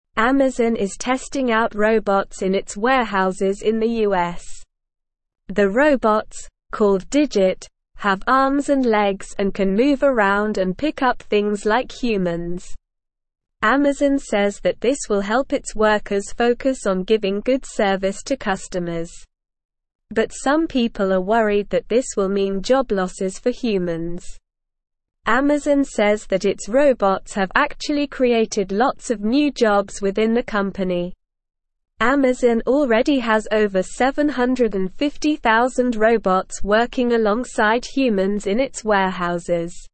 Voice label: English Newsroom Upper Intermediate SLOW Reading; Amazon Tests Humanoid Robot in US Warehouses